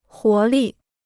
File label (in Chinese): "活力", Chinese